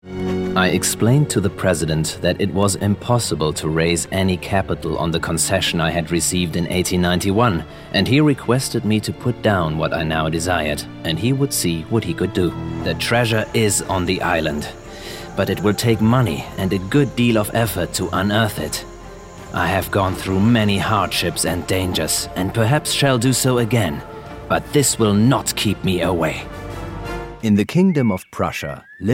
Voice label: English With German Accent Voicereel